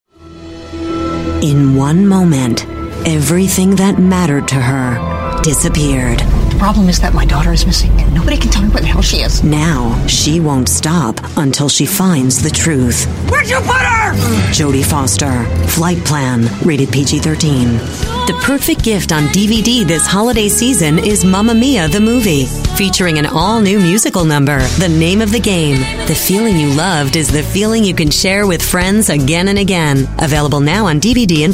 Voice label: US English Trailer

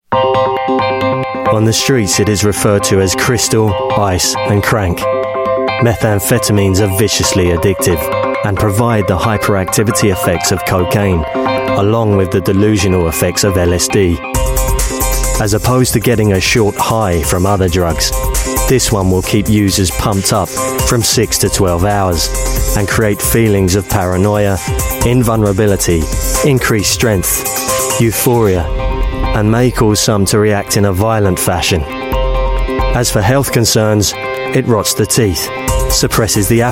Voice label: UK English; DRUG ADDICTION

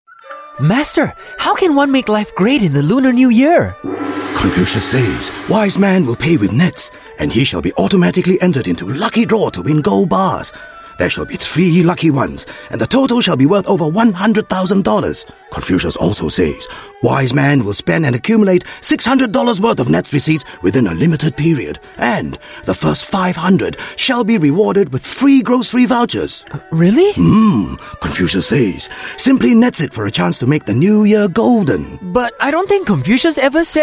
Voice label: Asian English Older Man; NETS

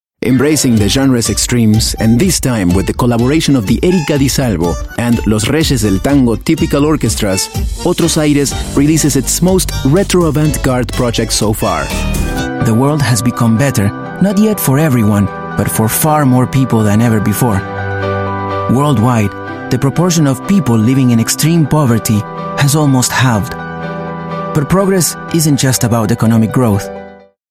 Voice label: English Spanish Accented

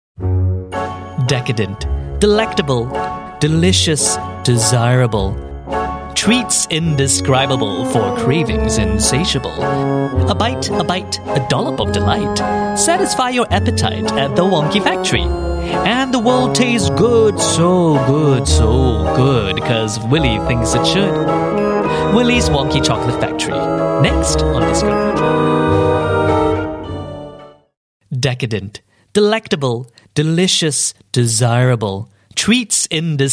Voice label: TV Trailer Quirky, English Singaporean Male

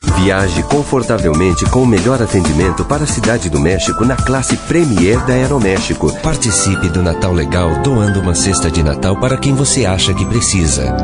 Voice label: Brazilian, Brazilian Portuguese Male

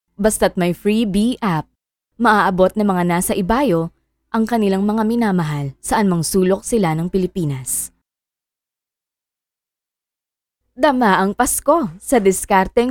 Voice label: Female Tagalog Voice

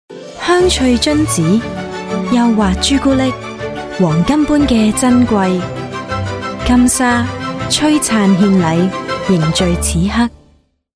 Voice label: Cantonese

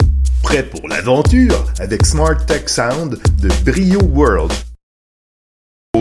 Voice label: French; Brio World

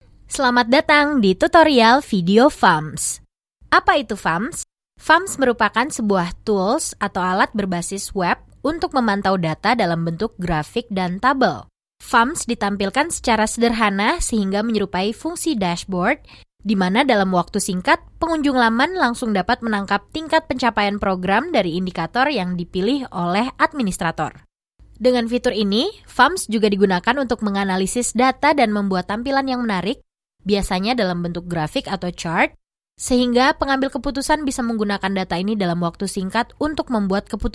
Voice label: Bahasa Indonesia Female Voice

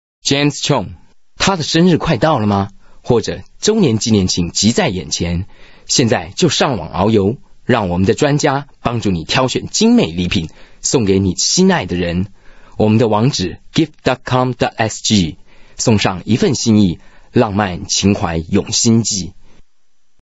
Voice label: Taiwanese, Mandarin Taiwan Male

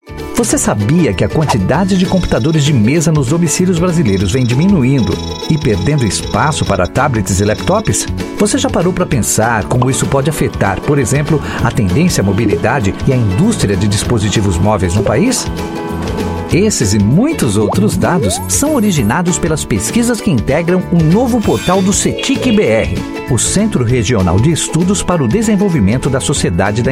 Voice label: Brazilian Portuguese, Male

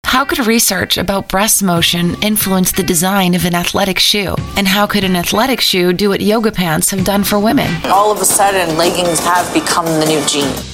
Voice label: US English; Lululemon

